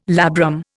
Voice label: "labrum", Indian

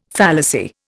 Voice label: "fallacy", Indian

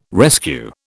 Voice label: "rescue", British

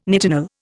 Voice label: "nitinol", Indian

